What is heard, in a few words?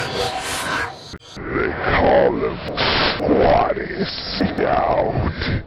Spooky message